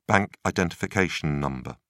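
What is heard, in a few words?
"bank identification number", British English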